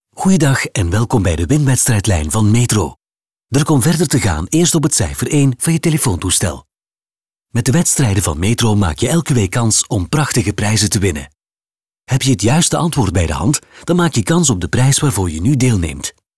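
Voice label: Flemish male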